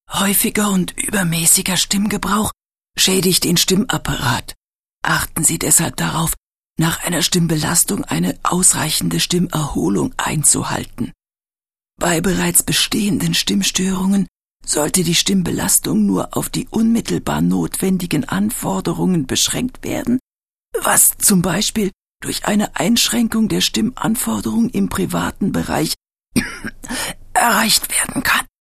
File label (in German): stimmueberforderung